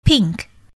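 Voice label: "pink", English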